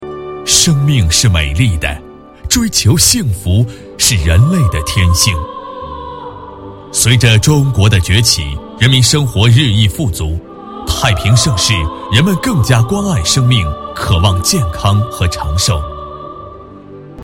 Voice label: 男40, 国语男声配音

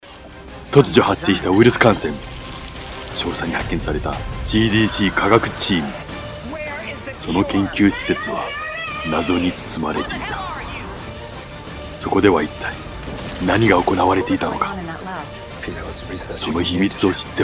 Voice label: 日语男, 混剪

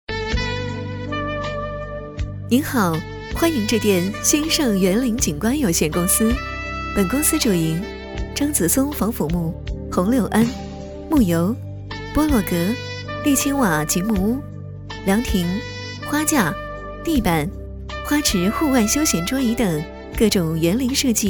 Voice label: 女, 彩铃, 园林

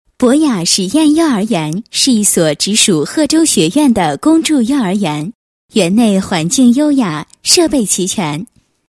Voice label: 女-学校-实验幼儿园, 配音案例; 博雅实验幼儿园

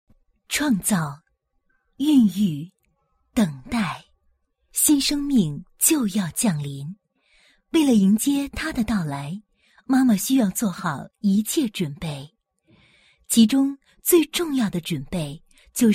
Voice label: 女, 专题, 国语女声; 母乳喂养

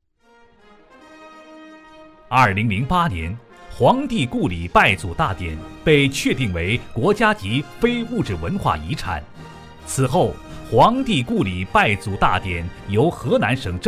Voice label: 男 历史文化 昂然奔放

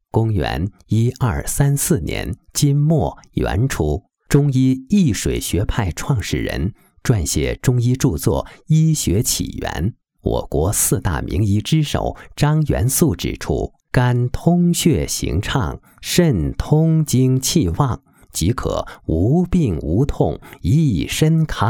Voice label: 肝肾与健康, 国语男声配音; 肝肾与健康样

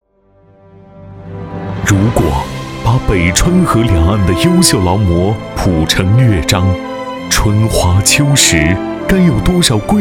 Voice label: 男 人物纪录片 有力

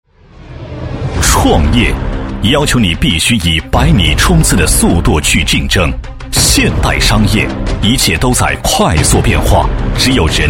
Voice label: 男-解说-梦想合伙人计划正式起航, 配音案例

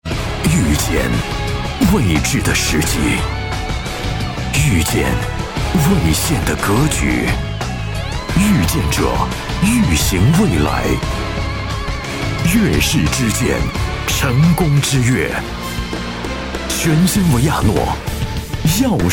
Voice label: 男 汽车广告, 国语男声配音

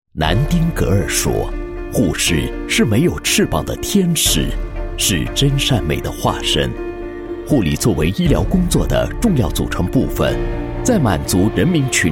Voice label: 男, 医院专题; 宁大附院护理团队

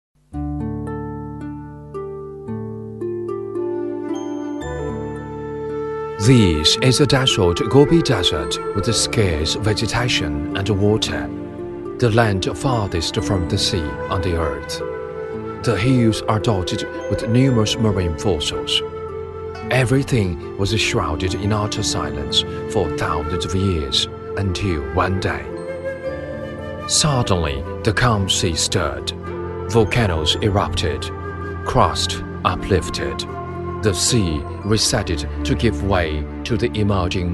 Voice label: 英男, 纪录片; 喀什山川诱惑

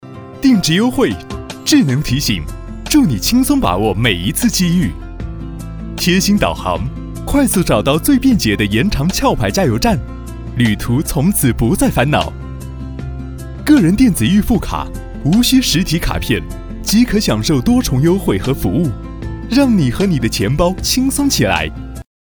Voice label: 广告, 壳牌加油站

